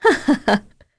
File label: Vox Happy; Naila; kingsraid; voices heroes en